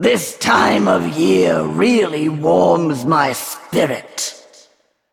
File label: Female HAPPY HOLIDAYS